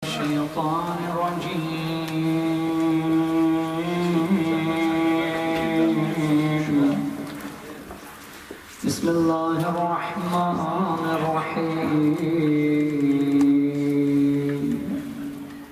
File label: Dua Iftetah Arabic; khojaradio